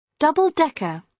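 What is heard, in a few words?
"double decker", British English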